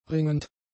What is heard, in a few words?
"ringend", German; adjectives